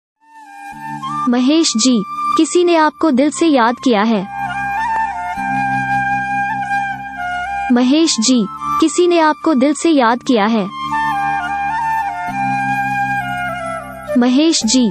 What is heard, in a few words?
mahesh name ringtone mahesh ji kisi ne aapko dil se yad kiya hai hindi song sms; anger